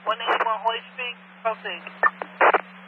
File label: amb police chatter; netent